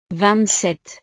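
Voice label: "vingt sept", French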